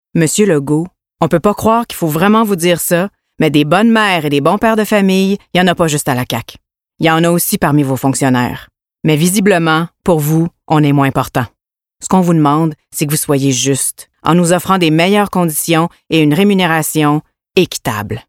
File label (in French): radio; fonctionnaires; fr